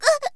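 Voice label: "ぐ", Japanese; Aesthetic RVC Inference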